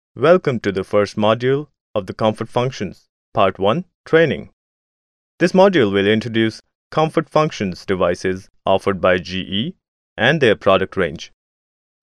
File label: Eng Indian Male